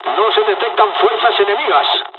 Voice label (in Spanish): dialogs spanish; War Thunder